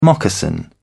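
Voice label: "moccasin", British English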